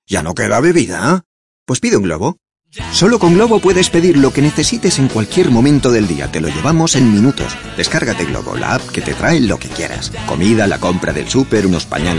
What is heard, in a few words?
spanish commercial; Español; España